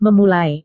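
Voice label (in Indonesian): "memulai", Indonesian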